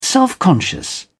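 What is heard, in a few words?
"self conscious", British English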